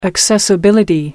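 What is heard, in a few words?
"accessibility", British English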